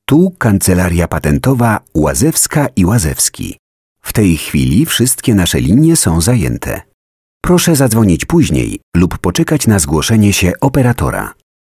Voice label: ivr